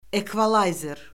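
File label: "ekvalayzer", Azerbaijani; Orfoqrafiya Lüğəti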